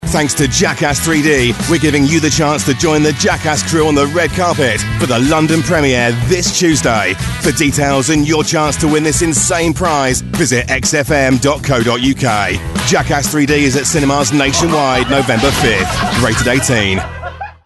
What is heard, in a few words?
commercial